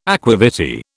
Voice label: "aqua vitae", British

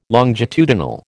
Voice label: "longitudinal", American

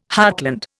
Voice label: "heartland", Indian

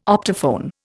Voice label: "optophone", Indian

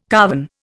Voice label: "carven", Indian

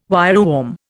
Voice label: "wireworm", Indian

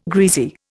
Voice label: "greasy", Indian

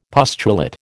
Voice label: "pustulate", American

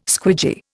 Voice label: "squidgy", Indian

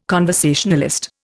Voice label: "conversationalist", Indian

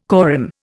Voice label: "corymb", Indian

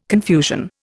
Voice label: "confusion", Indian

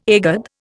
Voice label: "egad", Indian

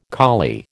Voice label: "collie", American

